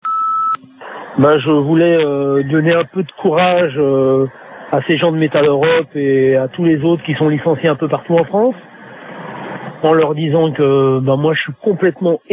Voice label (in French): répondeur; Metaleurop